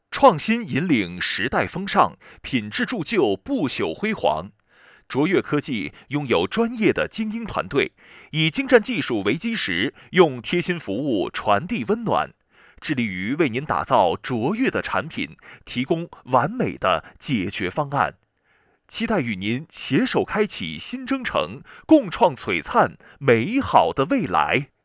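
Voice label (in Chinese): 沉稳青年男声企业宣传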